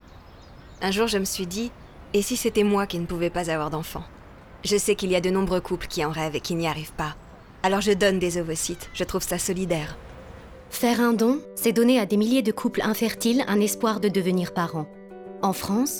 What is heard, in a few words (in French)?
spot radio; ovocytes